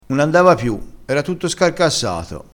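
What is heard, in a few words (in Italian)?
"scarcassato", dialettale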